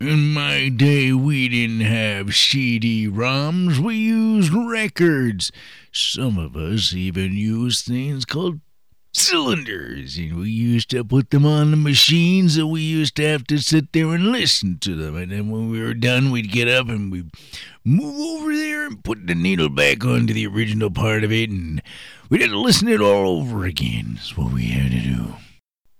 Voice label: Crotchity old man